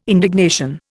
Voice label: "indignation", Indian